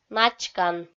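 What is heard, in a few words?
"начкан", Komi, female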